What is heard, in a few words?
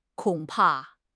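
"kong3 pa4", Mandarin Chinese; HSK4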